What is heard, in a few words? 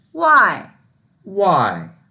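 "wai4", Mandarin Chinese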